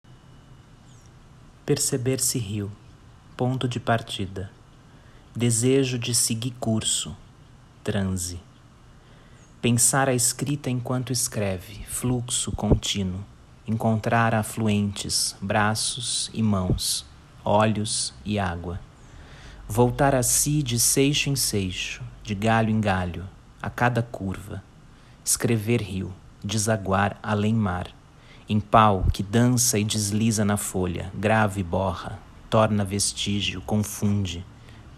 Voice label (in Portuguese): rio voz